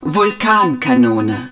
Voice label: HUD vulcan cannon, german

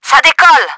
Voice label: LIFT OFF, french; Battle Engine Aquila; XBOX

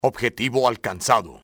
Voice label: target acq, spanish soldier; enemy; XBOX

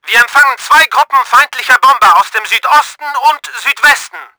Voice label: ENEMY BOMBERS APPROACHING, german; Battle Engine Aquila

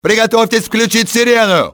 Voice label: sound alarm, russian soldier; enemy; XBOX

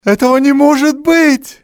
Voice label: cant be, male; XBOX; civ

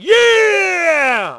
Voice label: yell, Positive; Splashdown; XBOX

